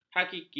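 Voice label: "hakiki", Malay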